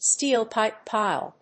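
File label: "STEEL-PIPE PILE", English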